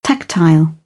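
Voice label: "tactile", British English; AmericanBritish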